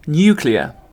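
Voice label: "nuclear", British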